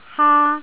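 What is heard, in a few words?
"haa1", Jyutping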